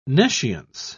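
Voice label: "nescience", British English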